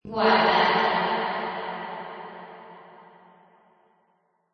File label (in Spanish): guarda echo